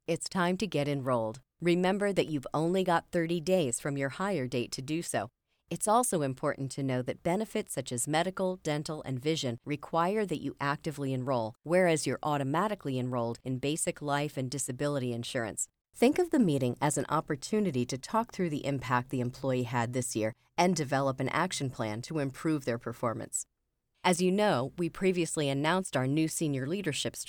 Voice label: eLearning for Employees, voiceover